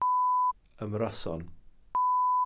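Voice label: "ymryson", Welsh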